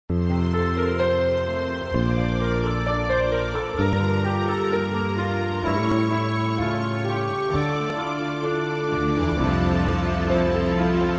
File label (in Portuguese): Romântica Amor Proibido